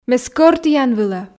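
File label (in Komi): ме скӧр тіян вылӧ, Komi, female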